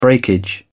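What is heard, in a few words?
"breakage", British English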